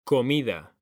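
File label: "COMIDA", Spanish, male; mekanta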